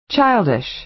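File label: "childish", American English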